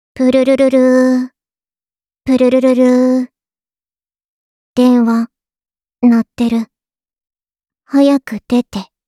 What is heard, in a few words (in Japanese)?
着信ボイス; 春日野穹